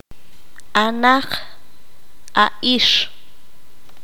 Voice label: "aquél aquella", Q'anjob'al

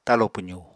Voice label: "talo pwzu", Apatani; Household Items

